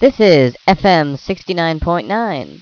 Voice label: dj talk